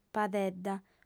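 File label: "padedda", female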